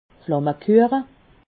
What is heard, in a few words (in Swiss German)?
"flammekueche", Alsace